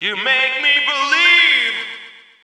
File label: Male FX Vocals; Houseworx